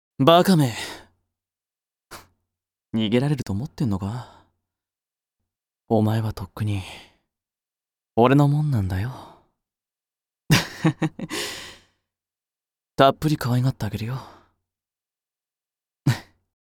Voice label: yandere